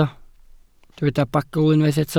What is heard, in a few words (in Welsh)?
"bagl", Welsh